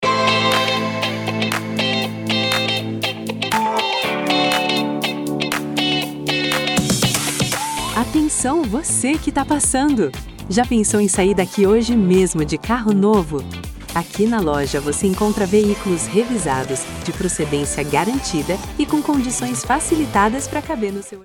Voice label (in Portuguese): Vinheta Comercial Profissional em Audio Entre agora Loja de Veiculos